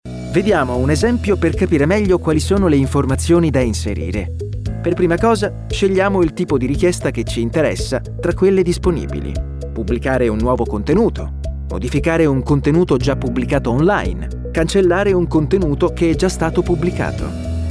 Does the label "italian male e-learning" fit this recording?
Yes